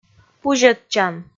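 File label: "пузьӧдчан", Komi, female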